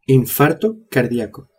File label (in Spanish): "infarto cardiaco", spanish